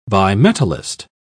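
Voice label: "bimetallist", English, uk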